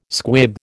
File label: "squib", American